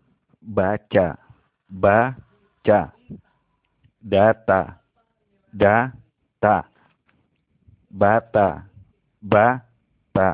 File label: How to spell vowel A in Indonesian